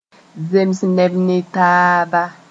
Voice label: "zems neb ne taaba", moore

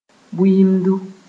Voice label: "buiidu", moore

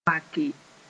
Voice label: "wake", Mooré; boast

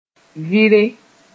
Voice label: "vɩre", moore

